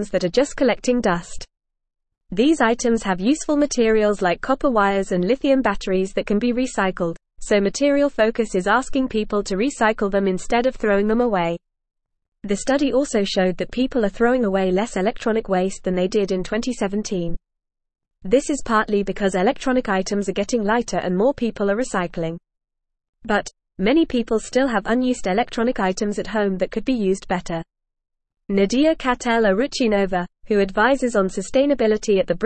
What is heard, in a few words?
English Lower Intermediate FAST Reading; English Newsroom; People in the UK throw away lots of electric things